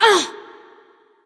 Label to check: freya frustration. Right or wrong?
Right